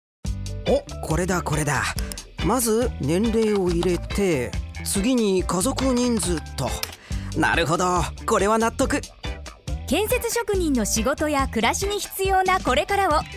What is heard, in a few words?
radio; osaka